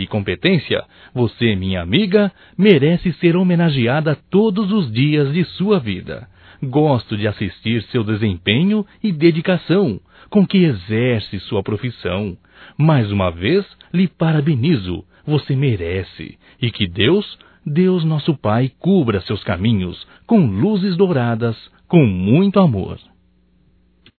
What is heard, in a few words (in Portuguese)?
Dia Secretária Masculino; Telemensagens; Só Voz; DATAS COMEMORATIVAS